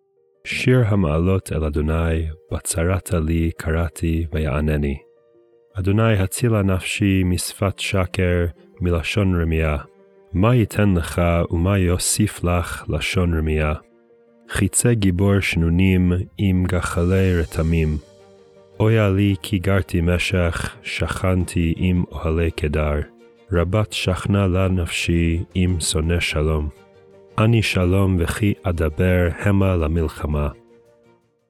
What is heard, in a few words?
poetry and prayer psalm hebrew